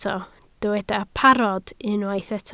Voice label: "parod" Welsh

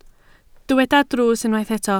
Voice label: "drws", Welsh

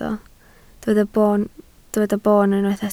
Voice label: "boon", Welsh

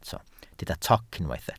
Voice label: "toc", Welsh

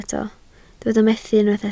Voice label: "methu" Welsh

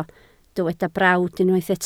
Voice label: "brawd", Welsh

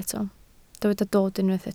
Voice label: "dod", Welsh

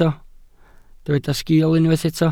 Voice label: "sgil", Welsh

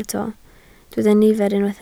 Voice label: "nifer" Welsh

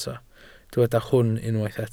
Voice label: "hwn" Welsh

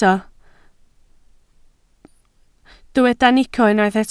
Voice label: "nico", Welsh

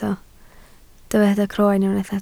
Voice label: "croen", Welsh

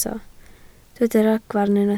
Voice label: "ragfarn", Welsh

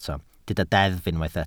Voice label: "deddf" Welsh

